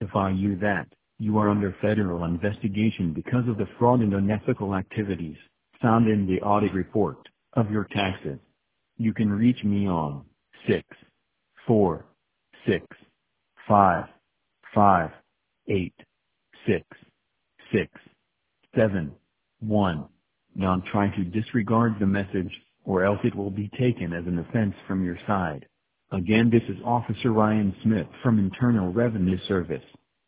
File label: voicemail